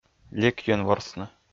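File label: "лекйӧн ворсны", Komi, male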